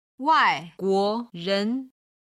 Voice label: "wai guo ren", Chinese